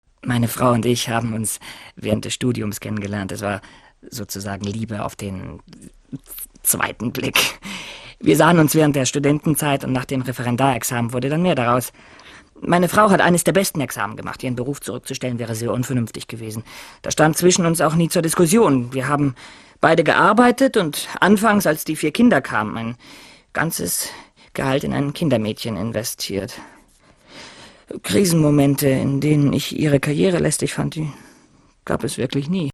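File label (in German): synchron, sprecher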